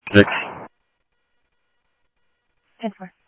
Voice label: Mesa Simulcast, MESA PD, scanner audio